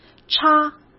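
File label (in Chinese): "叉", Mandarin Chinese; chinese-flashcards; hsk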